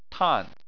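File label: "tan", Chinese, fourth tone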